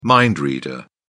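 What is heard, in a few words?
"mind reader", British English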